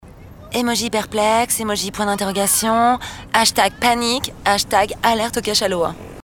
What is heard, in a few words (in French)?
geek blasée